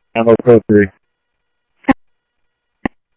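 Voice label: fire radio dispatch, Phoenix Fire; H-Scottsdale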